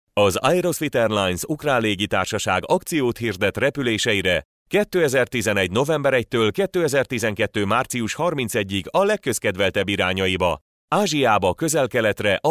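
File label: Hungarian, male, commercial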